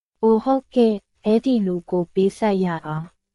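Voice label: Myanmar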